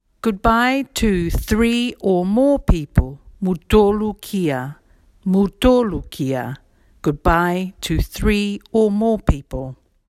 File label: Niue goodbye to three or more; 2022 Pacific Language Weeks